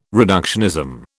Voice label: "reductionism", British